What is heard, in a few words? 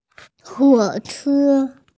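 "train", Mandarin, hearing-impaired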